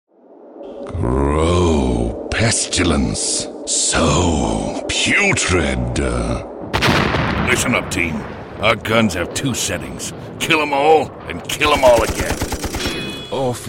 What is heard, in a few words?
men animation